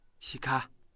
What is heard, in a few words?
"half", Kham